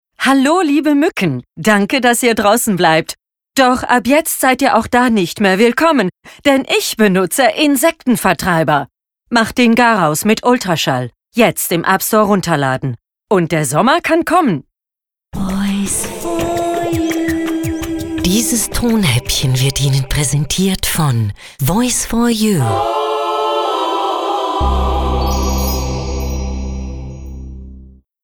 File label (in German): Werbung frisch